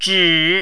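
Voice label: "zhi3", mandarin, dipping tone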